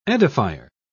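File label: "edifier", British English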